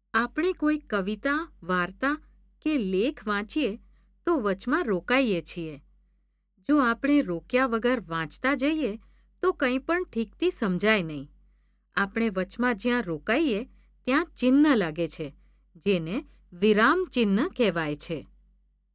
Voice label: gujrati voice, Gujarati Female